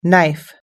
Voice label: "knife", us-english